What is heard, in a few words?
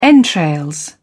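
"entrails", English, British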